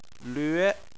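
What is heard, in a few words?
"lüe", Chinese, second tone; phonetics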